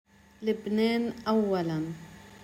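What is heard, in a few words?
"Lebnen awalan" arabic pronunciation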